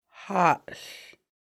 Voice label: good, Lushootseed

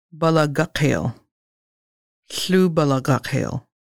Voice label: sunbreaks, Lushootseed

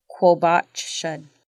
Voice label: "rainbow", Lushootseed

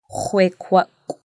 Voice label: "white", Lushootseed

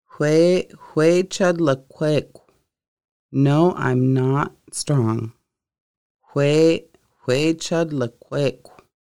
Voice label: No im not strong, conversational Lushootseed

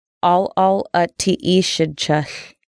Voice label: family services, Lushootseed

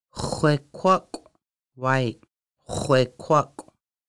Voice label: "white", Lushootseed

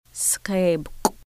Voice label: "octopus", Lushootseed

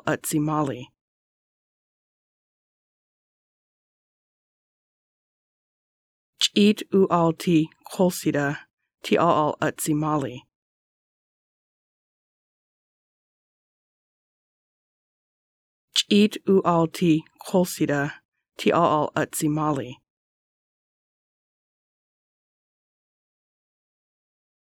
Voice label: Is Marys house near Quil Ceda, Lushootseed; č̓it ʔu ʔal ti qʷəl̕sidə ti ʔalʔal ʔə tsi mali

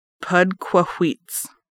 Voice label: "September", Lushootseed

